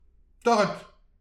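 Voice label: "torret", Breton; petit precis de prononciation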